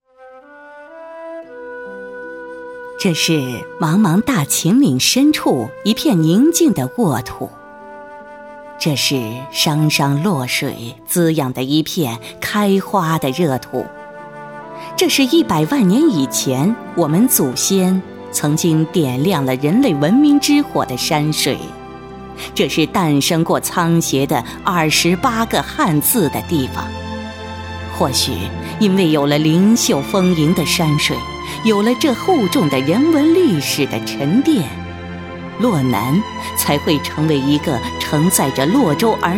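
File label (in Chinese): 女国大音-历史沧桑感之学校专题样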